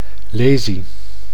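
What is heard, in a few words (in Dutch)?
"lesie", Dutch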